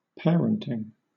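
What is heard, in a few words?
"parenting", British English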